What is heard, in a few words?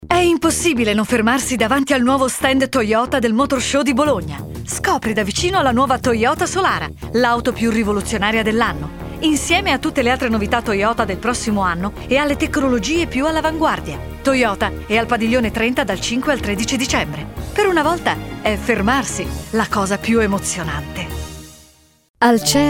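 Italian, female, voice over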